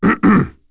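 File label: Vox Deny, voices heroes English; Kaulah; kingsraid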